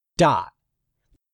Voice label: "dot", English; 3000 words